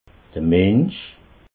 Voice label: "mensch", alsace

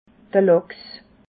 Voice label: "lachs", alsace

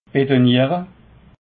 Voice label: "betonniere", alsace

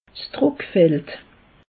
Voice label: "druckfeld", alsace; meteo

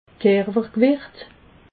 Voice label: "kerwergwicht", alsace

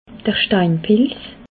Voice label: "steinpilz", Alsace

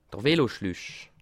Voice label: "veloschluch", alsace

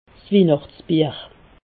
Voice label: "wihnachtsbier", alsace

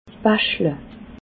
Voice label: "baschle", alsace

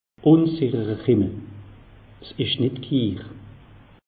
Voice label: "unsicherer", alsace; meteo

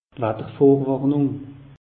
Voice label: "watter vorwarnung", alsace; meteo

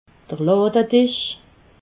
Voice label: "ladedisch", alsace

